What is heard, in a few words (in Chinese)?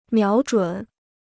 "瞄准", Chinese